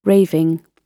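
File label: "raving", British English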